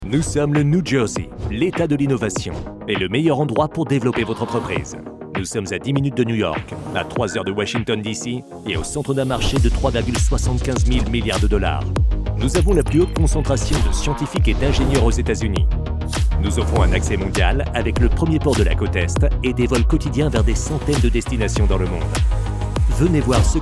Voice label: corporate; choose nj